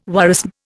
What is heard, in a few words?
"worrisome", Indian